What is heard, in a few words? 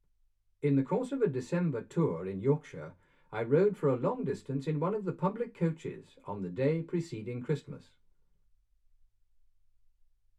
male speech english